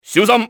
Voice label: "careful", cantonese male; XBOX; enemy